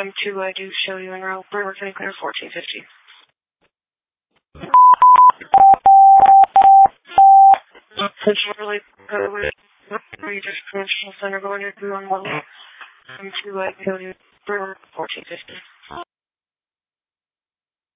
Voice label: Waverly Ambulance, dispatch